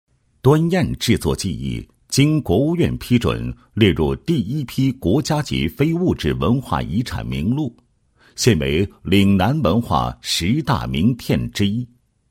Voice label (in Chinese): 男 人物; 国家非遗传承人拍摄